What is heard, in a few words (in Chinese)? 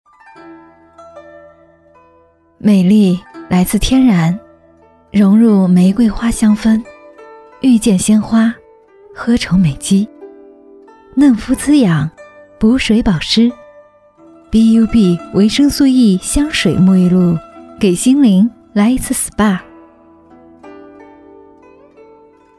女 广告